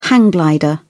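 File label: "hang glider", British English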